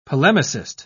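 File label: "polemicist", British English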